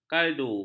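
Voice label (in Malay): "kaldu", Malay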